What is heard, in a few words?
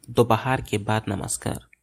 good afternoon hindi